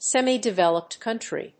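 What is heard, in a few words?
"semi-developed country", English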